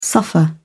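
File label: "suffer", British English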